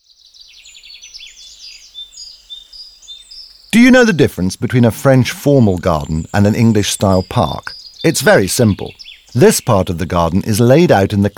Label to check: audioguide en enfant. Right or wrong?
Right